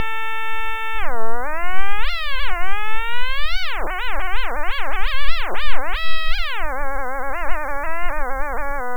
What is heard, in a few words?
vocoder